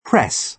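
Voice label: "press", British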